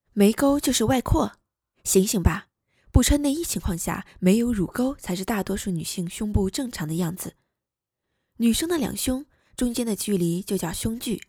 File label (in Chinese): 配音稿, 女, 普通话; 奶糖派